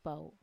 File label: "pau", a lot, wamesa